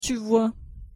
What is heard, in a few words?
pronunciation fr "tu vois"